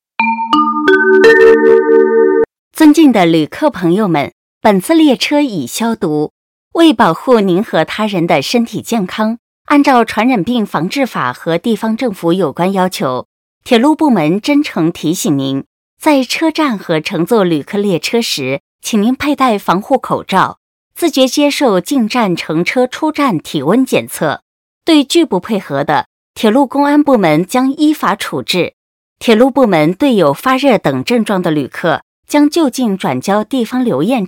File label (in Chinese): 防疫宣传, 普通话, 女, 青年